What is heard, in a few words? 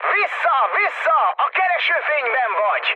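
Hungarian searchlite mood high, speech; War Thunder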